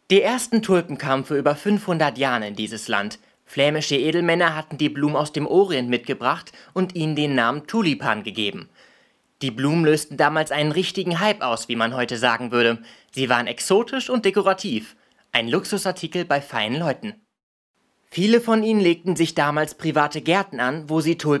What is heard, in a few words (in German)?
Sprachprobe neutral